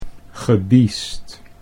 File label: "gebiesd", Dutch